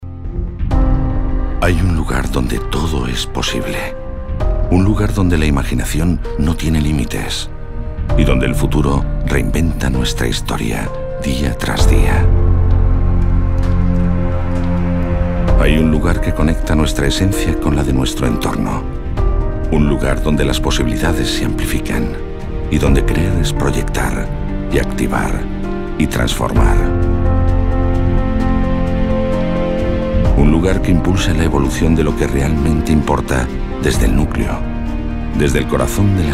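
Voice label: comercial, Spanish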